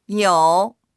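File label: "you3", Mandarin Chinese; 有; いる、ある、持っている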